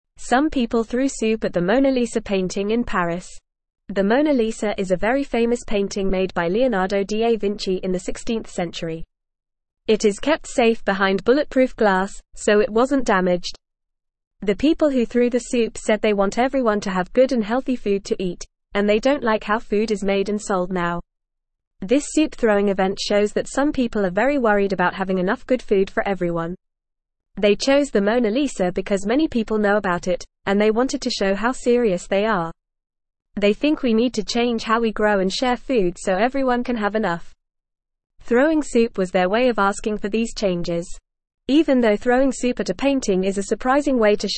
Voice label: English Lower Intermediate FAST Reading; Soup Thrown at Famous Painting in Paris Sparks Talk